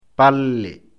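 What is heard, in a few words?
"palle", Fula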